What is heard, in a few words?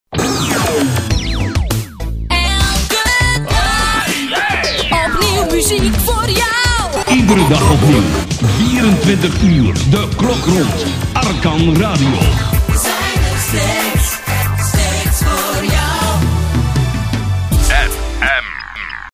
Jingle; Arkan Radio